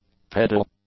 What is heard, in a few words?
"pedal", English; nouns